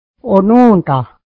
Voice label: "milk", mohawk